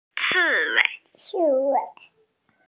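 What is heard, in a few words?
"ci4 wei5", Mandarin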